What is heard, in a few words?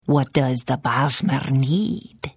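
Hello, Khajiit female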